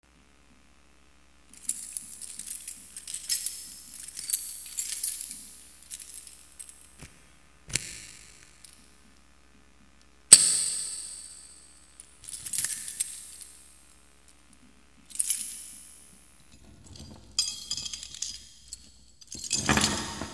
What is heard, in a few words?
Promo; Dead Man Walking; RantRadio; RantMedia; Station Promos-Listener Submitted